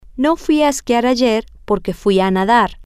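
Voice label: I didnt go skiing yesterday because I went swimming, Spanish, female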